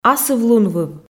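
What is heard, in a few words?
"асыв-лунвыв", Komi, female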